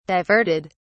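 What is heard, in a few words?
"diverted", British English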